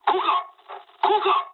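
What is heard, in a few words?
Japanese bailout mood low; War Thunder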